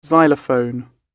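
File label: "xylophone", British English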